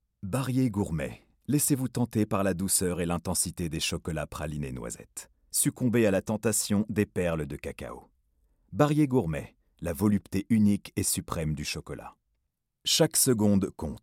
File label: commercial, French, male